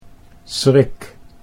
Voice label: "schrik", Dutch